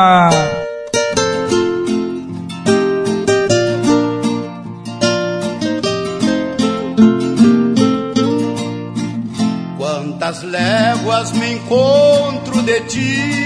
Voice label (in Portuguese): locutor verso cowboy de fama